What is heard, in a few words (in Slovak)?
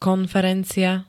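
"konferencia", Slovak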